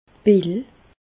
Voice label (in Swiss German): "pill", Alsace, Bas Rhin; medecine; comprimé